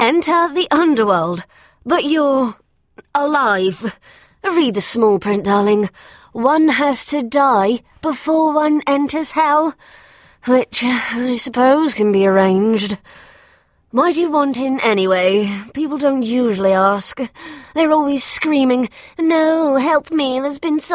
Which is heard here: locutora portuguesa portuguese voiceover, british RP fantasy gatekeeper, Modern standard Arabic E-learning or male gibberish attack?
british RP fantasy gatekeeper